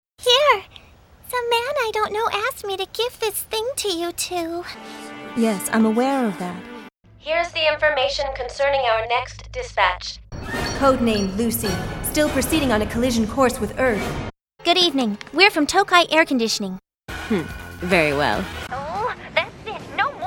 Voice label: Anime